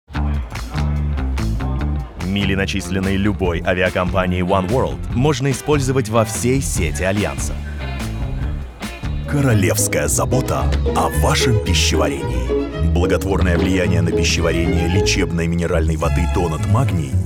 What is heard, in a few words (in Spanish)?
Masculino